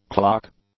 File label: "clock", English; nouns